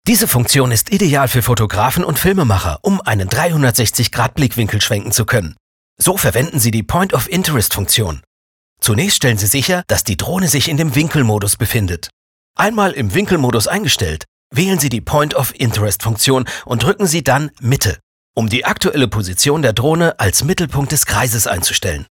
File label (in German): tutorial Drohne plakativ